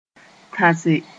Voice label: "tãse", moore; to shout

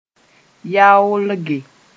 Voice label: "yaoolge", moore

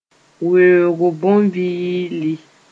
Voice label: "weoog bõn-vɩɩlɩ", moore

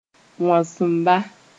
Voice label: "wãsemba", moore

